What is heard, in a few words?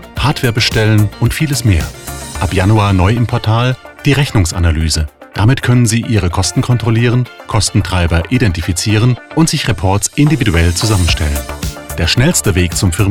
imagefilm phone